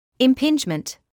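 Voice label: "impingement" English, British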